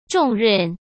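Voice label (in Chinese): "重任", Mandarin Chinese; hsk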